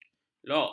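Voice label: "lok", Malay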